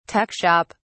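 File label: "tuck shop", British English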